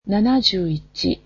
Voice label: "seventy one", japanese